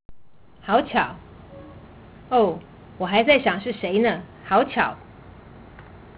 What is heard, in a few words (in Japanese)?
Chugokugo